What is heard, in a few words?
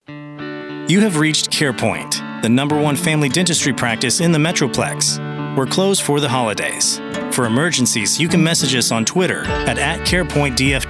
Telephony